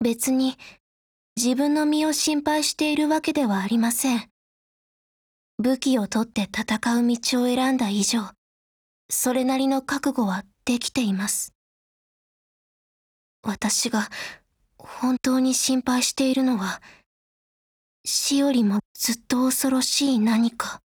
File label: DIALOGUE JP